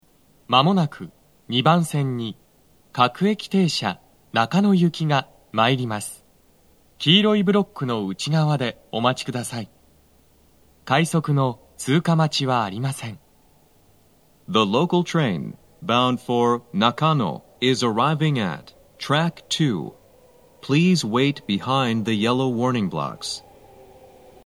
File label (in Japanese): 接近放送, 男声, 放送は「各駅停車 中野行き」です。快速 の通過待ちはありません Panasonic天井型での収録です。; 2番線 中野・三鷹方面